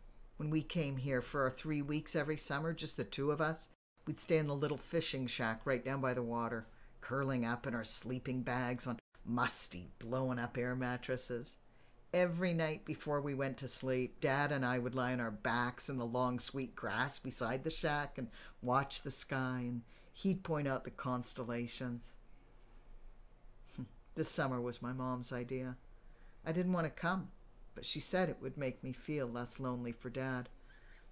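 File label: voice sample audiobook, female voice; saying goodbye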